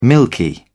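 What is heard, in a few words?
"milky", British English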